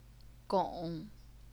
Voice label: "gong3", chinese pinyin